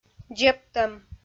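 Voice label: "дзебтӧм", Komi, female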